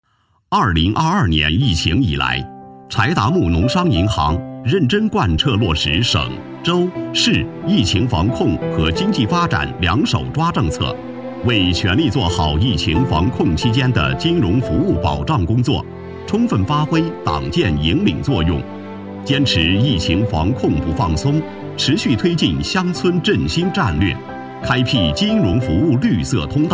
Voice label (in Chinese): 男 宣传片 金融服务不断档